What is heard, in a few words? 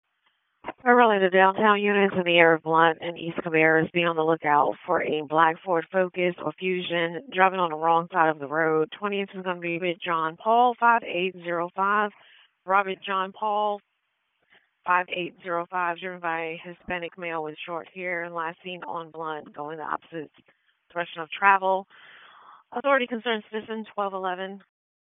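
Raleigh Police Southeast District Dispatch, p25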